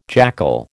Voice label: "jackal", American

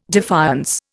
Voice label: "defiance", Indian

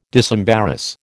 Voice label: "disembarrass", American

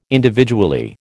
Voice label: "individually", American

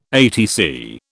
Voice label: "ATC", British

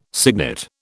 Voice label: "signet", British

